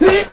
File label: man attack; Pirates Of The Caribbean; DUEL